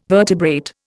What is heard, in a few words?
"vertebrate", Indian